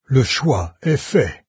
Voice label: choice, fr, NARRATOR; Fate

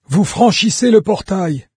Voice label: portal, fr narrator; Fate Undiscovered Realms

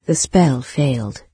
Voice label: spell fail, en-UK, NARRATOR; Fate

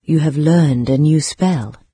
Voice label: newspell, narrator, en-UK; Fate

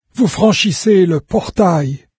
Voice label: portal, fr, NARRATOR; Fate

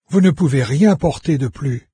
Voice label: pack full, fr, NARRATOR; Fate

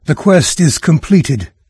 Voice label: quest complete, narrator; Fate The Cursed King; en-US

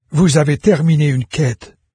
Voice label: quest complete, fr, NARRATOR; Fate

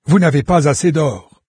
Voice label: low gold, fr, NARRATOR; Fate Undiscovered Realms

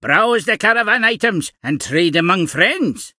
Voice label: trader, en-US; merch; Fate The Cursed King; calel

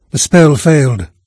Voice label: spellfail, narrator; Fate The Cursed King; en-US

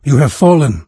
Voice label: die, narrator; Fate The Cursed King; en-US

